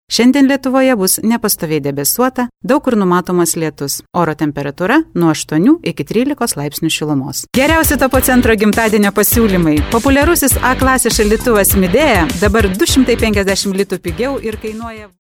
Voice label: Lithuanian commercial